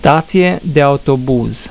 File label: "Stat'ie de autobuz", Romanian